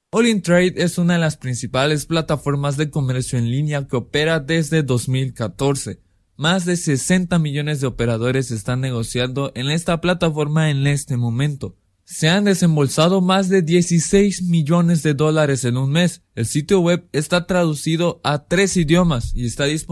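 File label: Spanish male; 西班牙语; 外语; 小语种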